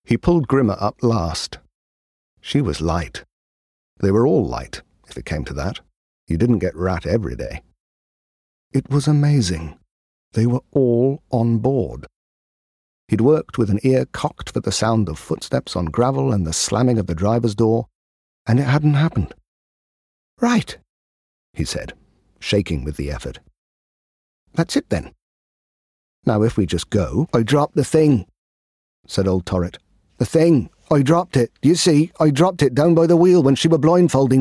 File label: Audiobook